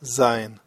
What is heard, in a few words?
"zayin", hebrew